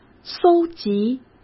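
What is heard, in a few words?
"搜集", Mandarin Chinese; hsk; chinese flashcards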